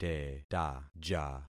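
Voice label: "tetaja", concatenated syllables; Affixing experiment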